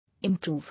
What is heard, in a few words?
"Improve", English